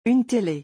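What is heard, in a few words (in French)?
"une télé", French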